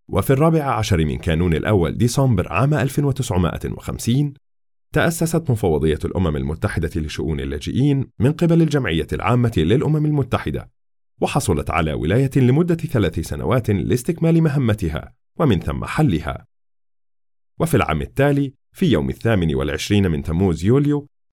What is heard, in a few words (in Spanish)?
locutor arabe egipto arabic voiceover